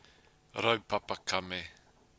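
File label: "raupapa kame", Māori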